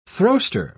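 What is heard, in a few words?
"throwster", British English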